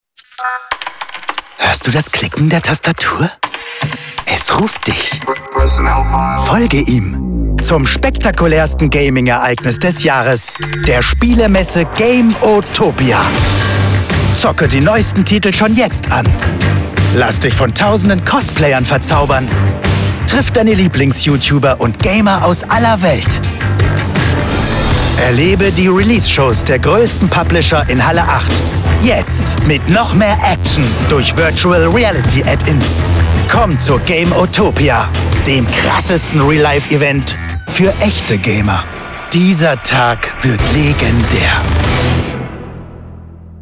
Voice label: german video games

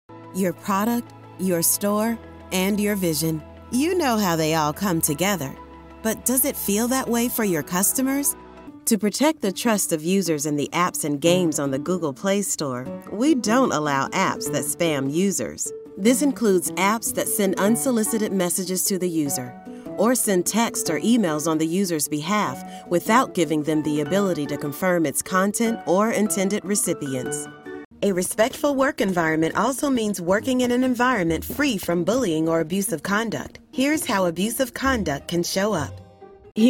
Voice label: english american explainer videos

